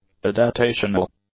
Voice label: "adaptational", English; adjectives